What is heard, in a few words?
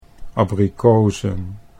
"abrikozen", Dutch